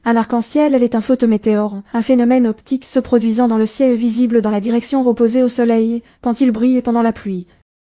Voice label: speaker, fr FR; piper voices